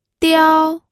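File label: "diao", Mandarin Chinese, first tone; pinyin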